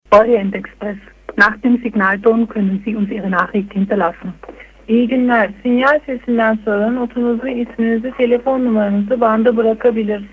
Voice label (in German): Anrufbeantworter